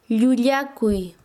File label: Chanka, quechua; mentir